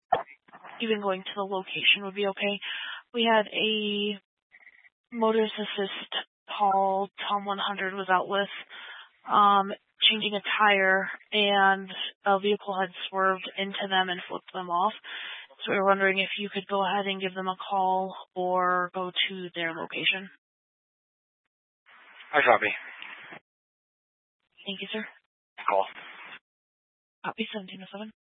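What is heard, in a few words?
Dispatch, Scanner audio recording; Troop 3A Dispatch (W. Weld, N. Boulder)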